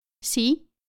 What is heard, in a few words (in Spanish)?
"si" word